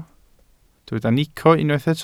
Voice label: "nico", Welsh

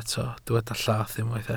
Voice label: "llath", Welsh

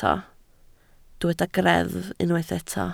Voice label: "greddf" Welsh

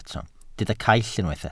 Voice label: "caill", Welsh

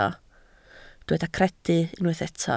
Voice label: "credu", Welsh

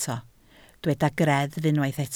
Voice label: "greddf" Welsh

